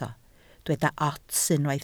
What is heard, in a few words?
"ots", Welsh